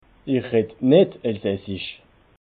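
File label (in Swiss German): "nittelsassisch", Alsatian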